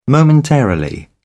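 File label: "momentarily", British English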